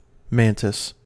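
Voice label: "mantis", US English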